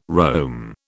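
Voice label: "roam", British English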